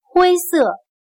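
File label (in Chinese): "灰色", Chinese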